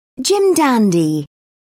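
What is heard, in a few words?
"jim dandy", British English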